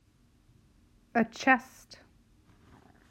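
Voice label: "A chest", English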